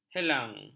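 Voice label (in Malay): "helang", Malay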